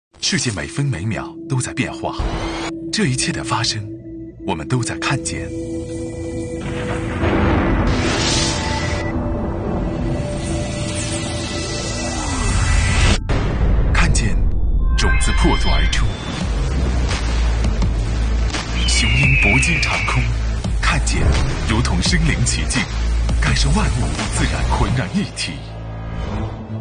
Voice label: 国语男 广告 科技产品类; ivvi K5

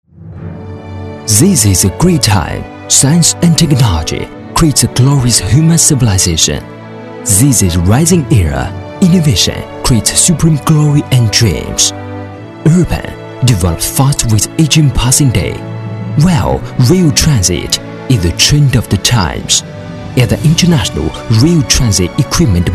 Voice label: 英语男

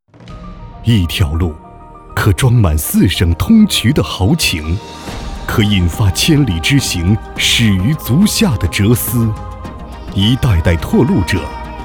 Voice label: 国语男 宣传; 衢江交投

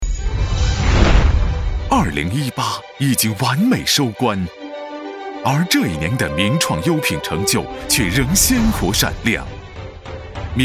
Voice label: 国语男 专题片 颁奖; 名创

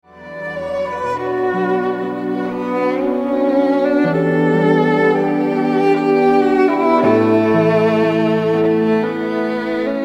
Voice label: 国语男 情感浓郁类; 拨浪鼓

